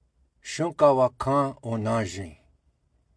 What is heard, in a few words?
shunkawakan onazin pronunciation; manitobas protected and conserved areas network